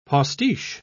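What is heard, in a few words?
"postiche", British English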